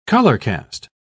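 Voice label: "colorcast", British English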